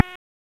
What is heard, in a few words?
Police North District Tac 1, p25; wake p25